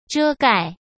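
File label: "遮盖", Mandarin Chinese; hsk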